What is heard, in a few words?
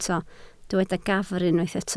"gafr", Welsh